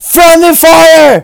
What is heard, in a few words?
scared